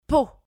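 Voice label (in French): "pot", French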